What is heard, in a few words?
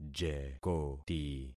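"jekoti", concatenated syllables; Affixing experiment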